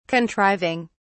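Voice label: "contriving", British English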